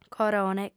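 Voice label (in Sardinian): "corone", female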